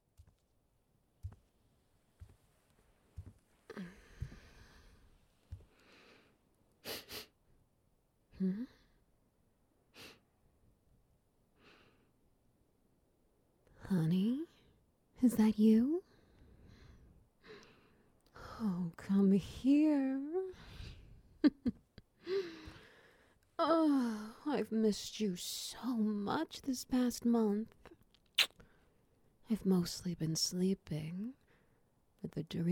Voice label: Ambience SFX Wet Noises; Teddy's Hibernation Cuddles

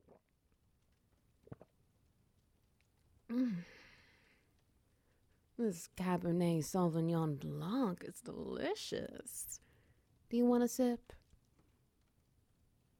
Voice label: Ambience Wet Noises

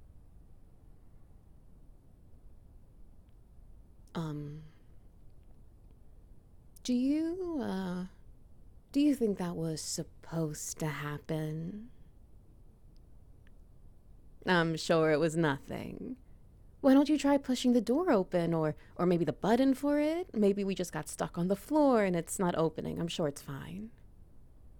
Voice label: Trapped in an Elevator Ambience

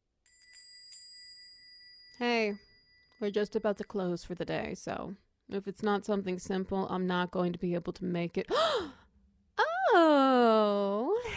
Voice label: SFX Wet Noises; Kitsune Kafe

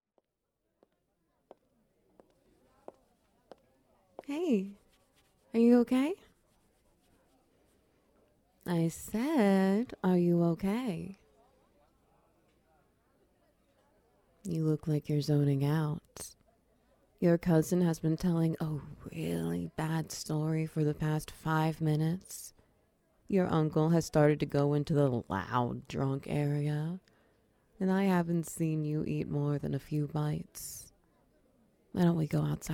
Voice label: Fresh Air Ambience SFX

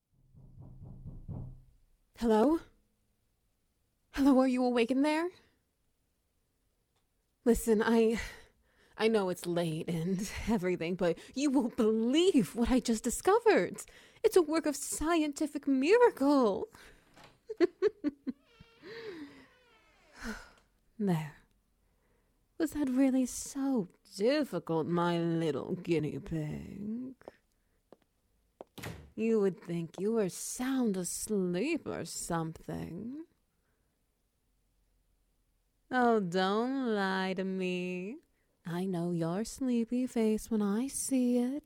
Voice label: Ambience SFX Wet Noises Tentacles 8D

